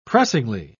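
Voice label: "pressingly", British English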